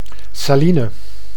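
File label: "saline", Dutch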